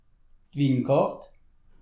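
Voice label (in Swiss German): "winkart", Alsatian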